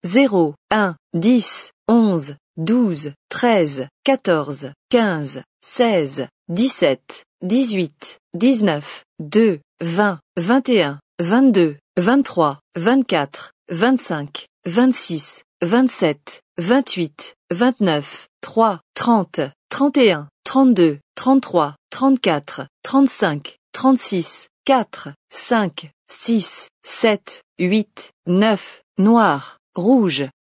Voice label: Dealer Voice, sk, Roulette; real Dealer European Roulette Vintage; fr